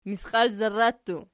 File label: "misqialzarra", Archi